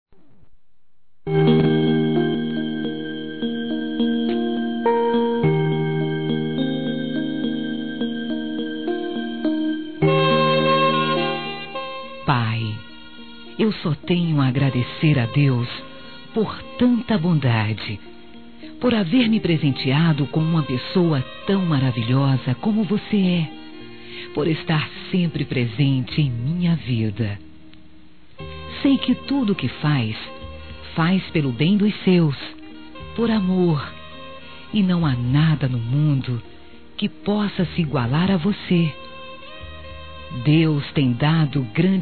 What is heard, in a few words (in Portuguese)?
ANIV. DE PAI, Gospel, fem; Telemensagens; Telemensagens 2017